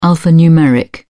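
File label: "alphanumeric", British English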